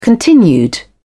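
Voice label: "continued", British English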